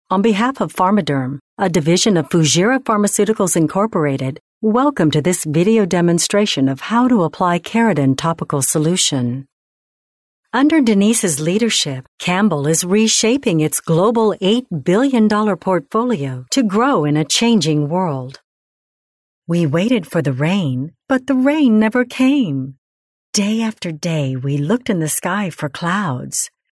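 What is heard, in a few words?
US English, female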